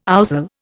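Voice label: "ouzel", Indian English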